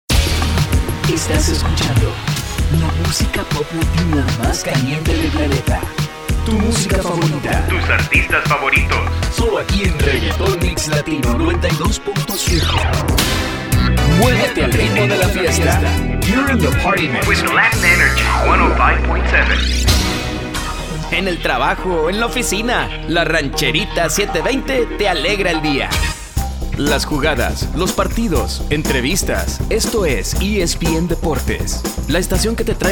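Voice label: StationID, Spanish voice-over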